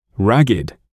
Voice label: "ragged", English